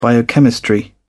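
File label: "biochemistry", English, uk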